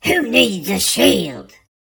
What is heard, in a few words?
npc orc weaponsmith